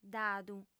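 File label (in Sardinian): "dadu", female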